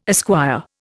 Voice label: "esquire", Indian

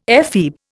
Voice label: "ephebe", Indian

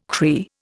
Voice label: "Cree", Indian

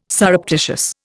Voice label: "surreptitious", Indian

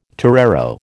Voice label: "torero", American

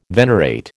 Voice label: "venerate", American

